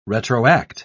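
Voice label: "retroact", British English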